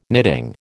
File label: "knitting", American